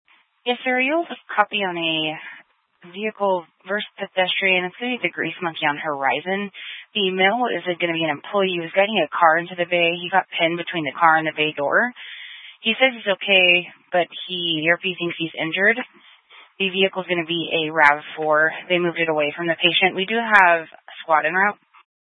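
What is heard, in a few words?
Incident Tactical, scanner audio recording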